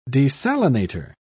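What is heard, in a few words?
"desalinator", British English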